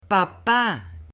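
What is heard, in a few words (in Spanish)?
"papa", Spanish, male; AppLenguaje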